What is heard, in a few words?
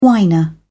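"whiner", British English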